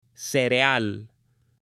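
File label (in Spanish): "cereal" Spanish, female; ALIMENTOS; AppLenguaje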